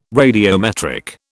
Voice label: "radiometric", British